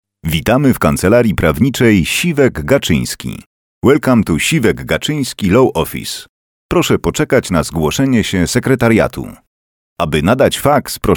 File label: ivr